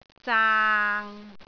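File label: "zaang6", Cantonese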